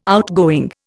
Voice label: "outgoing", Indian

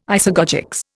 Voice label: "isagogics", Indian

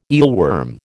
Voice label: "eelworm", American

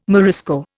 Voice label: "Morisco", Indian

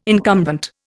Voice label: "incumbent", Indian

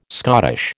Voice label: "Scottish", American

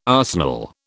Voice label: "arsenal", British

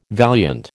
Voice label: "valiant", American

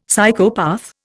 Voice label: "Psychopath", Indian; Dictionary

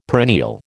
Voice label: "perennial", American

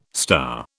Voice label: "star", British

